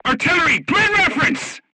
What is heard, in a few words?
voice message art command, crew tanks portuguese